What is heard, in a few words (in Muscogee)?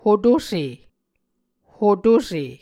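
"hotose", Muscogee word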